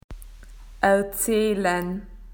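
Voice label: "to tell", Australian English; PictureDictionary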